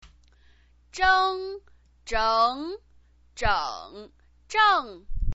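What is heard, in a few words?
"zheng", Mandarin Chinese pinyin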